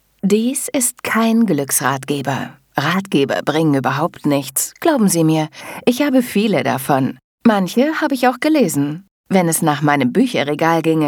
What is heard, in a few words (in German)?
Hörbuch; Das Glücksprojekt